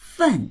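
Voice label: "fen4", Mandarin Chinese; 份; ～部（(1)組になったもの(2)新聞や雑誌を数える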